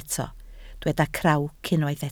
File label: "crawc", Welsh